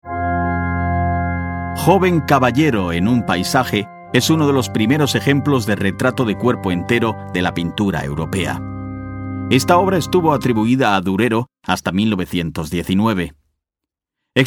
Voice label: spanish documentaries

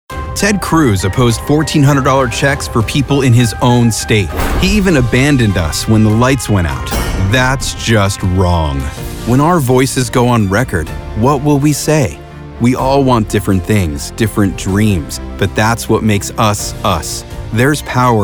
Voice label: english american political ads

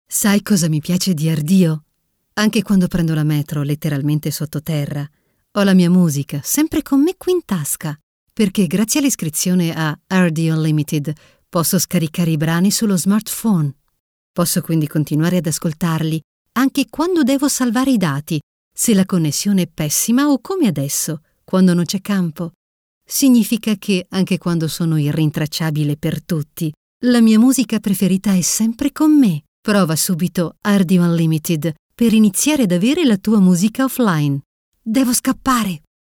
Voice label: italian commercial

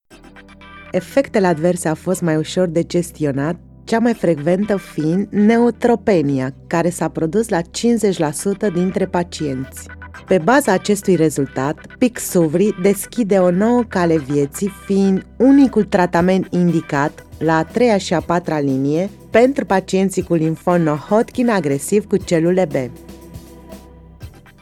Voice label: romanian corporate

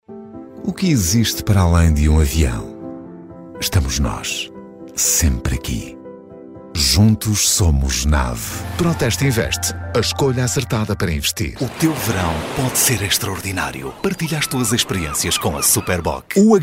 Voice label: Portuguese commercial; Portugal; professional Portuguese voice actor

